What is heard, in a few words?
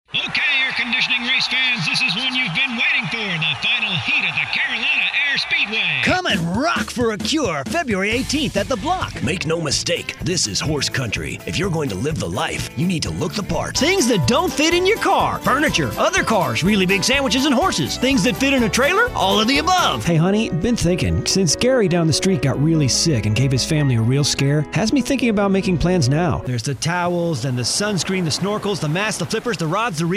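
Announcer voice over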